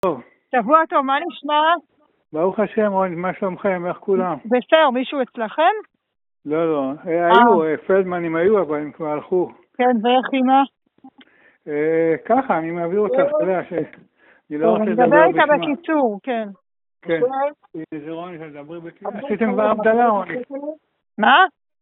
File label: Call recording